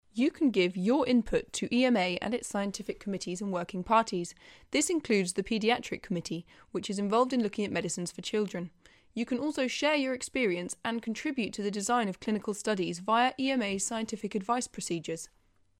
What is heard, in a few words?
locutora inglesa british english voiceover